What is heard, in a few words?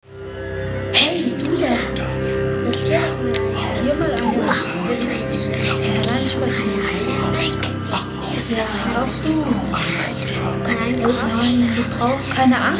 wisper